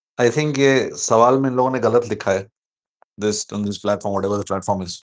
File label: voice message